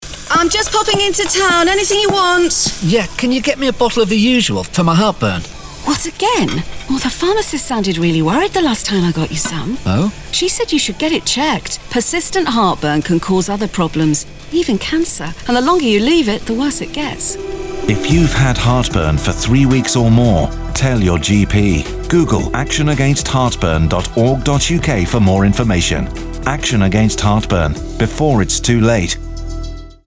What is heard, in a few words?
Radio ad; Action Against Heartburn